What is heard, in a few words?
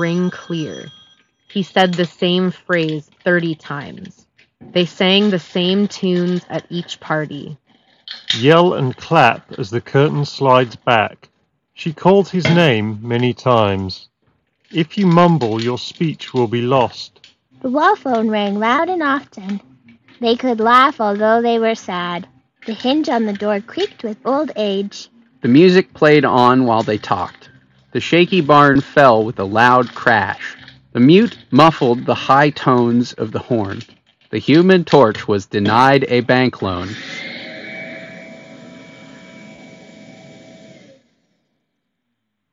Office microphone sample; LG TONE Free Fit UTF7Q